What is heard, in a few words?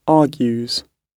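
"argues", British English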